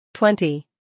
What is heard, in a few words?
"twenty", English